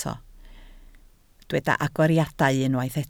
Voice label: "agoriadau", Welsh

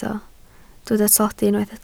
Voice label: "tlodi", Welsh

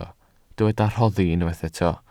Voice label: "rhoddi", Welsh

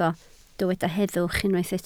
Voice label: "heddwch", Welsh

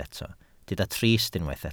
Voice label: "trist", Welsh

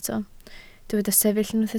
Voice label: "sefyll", Welsh

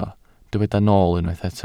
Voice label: "nool", Welsh

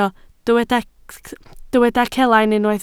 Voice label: "celain", Welsh

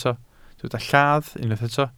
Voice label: "lladd", welsh

